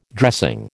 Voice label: "dressing", American